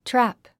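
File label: "trap", English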